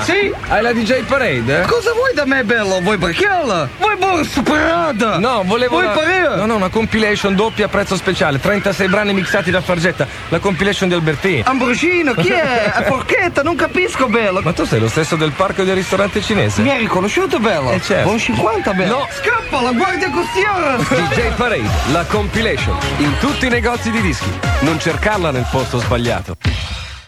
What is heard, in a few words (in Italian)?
spot spiaggia